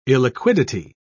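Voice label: "illiquidity", British English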